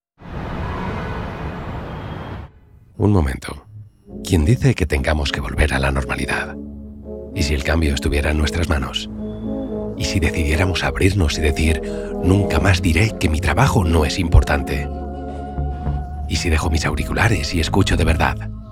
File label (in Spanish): publi positivo